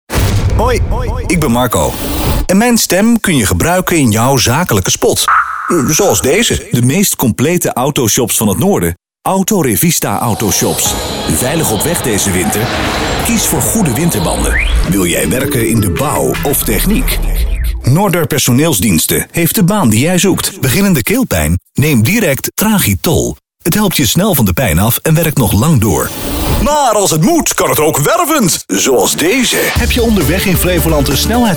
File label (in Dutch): algemeen, voice-over